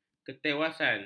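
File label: "ketewasan", Malay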